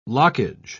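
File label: "lockage", English, British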